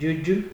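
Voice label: "juju", Nigerian Pidgin, male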